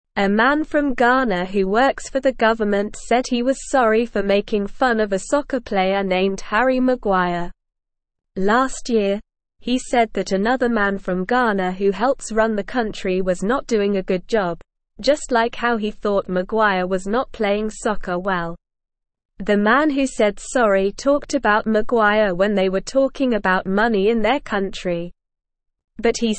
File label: English Lower Intermediate SLOW Reading; Man Apologizes to Harry Maguire for Making Fun; English Newsroom